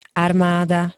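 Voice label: "armáda", Slovak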